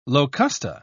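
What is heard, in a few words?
"locusta", English, British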